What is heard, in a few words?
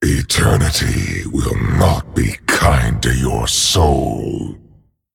male dragon, Threaten